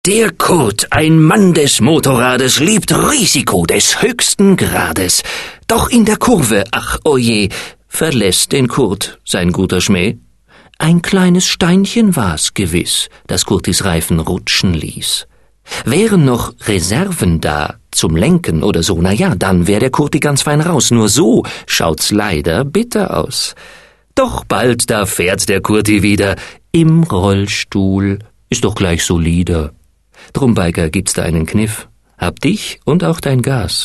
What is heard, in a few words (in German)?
radiospot; bikersproject